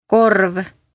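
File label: "korv", Estonian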